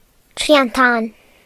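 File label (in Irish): "triangle", Irish; gcompris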